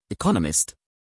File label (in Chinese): 英籍外師念economist